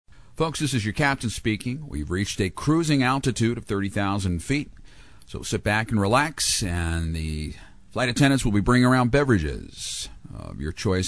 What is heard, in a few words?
Commercial Airplane Pilot; commercials